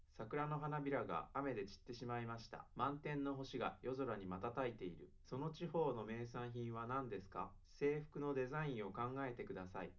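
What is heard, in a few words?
male, live recording, 250 ms, 1 m; SiSEC11; underdetermined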